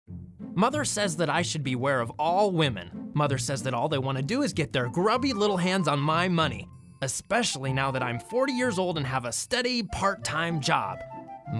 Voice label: kooky